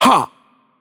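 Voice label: Greek attack heavy voice; bloom minigame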